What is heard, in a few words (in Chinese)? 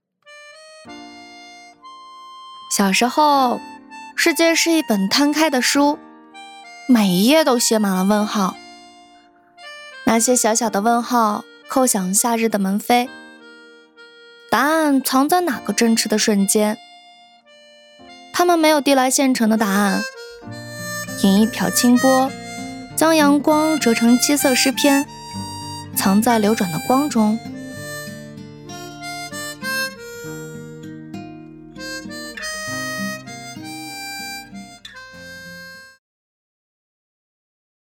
女 真实 自然